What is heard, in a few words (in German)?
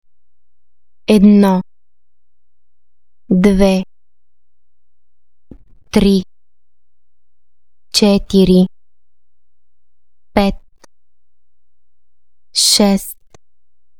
bulgarisch